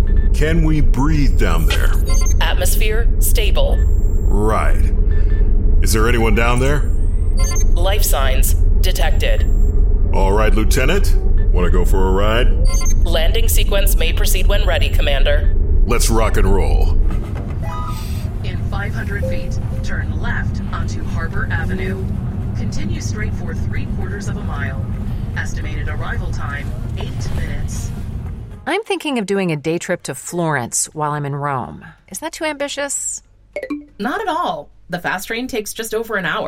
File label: Digital Computer Voice, voiceover